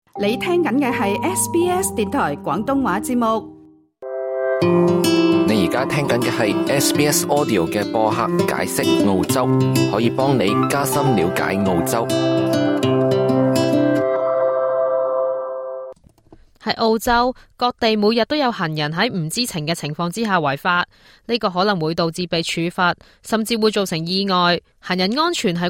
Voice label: cantonese; 遵守行人道路規則有多重要？; SBS Chinese; 澳洲各地每天都有行人在不知情下違法。這可能會導致被處罰，甚至會造成意外。熟悉澳洲一些常見的行人道路法，可確保安全並避免意外罰款。